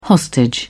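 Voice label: "hostage", British English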